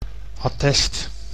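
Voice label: "attest", Dutch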